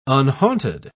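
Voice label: "unhaunted", British English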